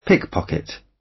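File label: "pickpocket", British; Crime and punishment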